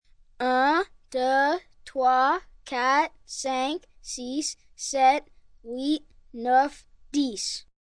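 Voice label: Numbers French 1 to 10, Foreign, 1 girl, 10 yrs, counting French - 1-10; human voice, kid, child, speak, talk; 1 boy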